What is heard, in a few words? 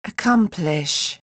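"accomplish", British English, female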